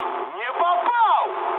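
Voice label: Russian bomb failure mood high, speech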